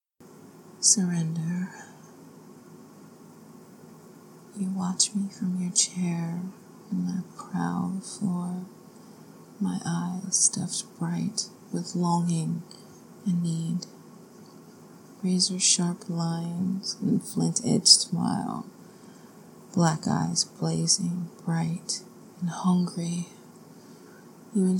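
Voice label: [F4M] [Erotic poetry][MDomfsub], female; Erotic audio; Surrender; Erotic poetry by uMoondanceWithMe; Oral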